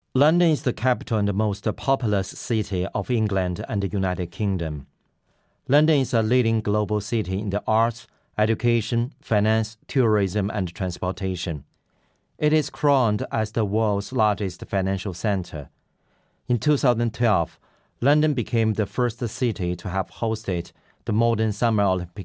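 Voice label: Chinese accented English; GoLocalise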